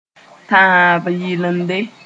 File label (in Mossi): "tãab yɩlende", moore